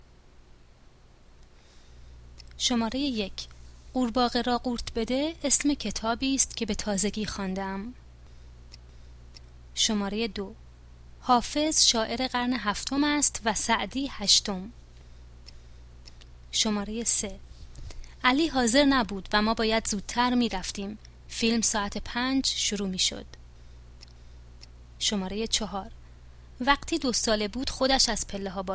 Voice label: pronunciation, Persian